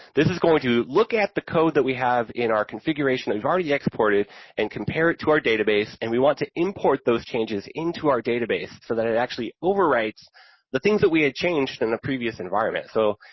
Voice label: english